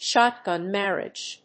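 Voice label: "shotgun marriage", English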